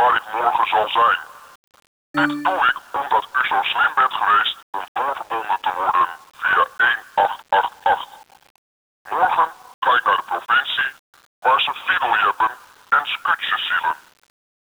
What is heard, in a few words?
voicemail